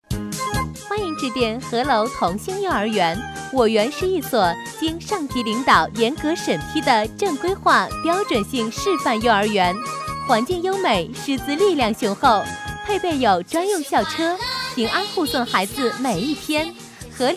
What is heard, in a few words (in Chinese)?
女 幼儿园（甜美亲和）